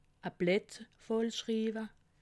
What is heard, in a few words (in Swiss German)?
"blett", alsace